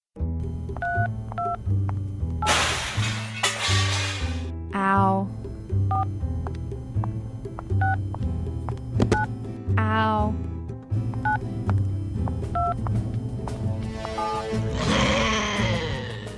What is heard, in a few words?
Radio PSA